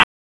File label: Police North District Tac 1, p25; Raleigh; wake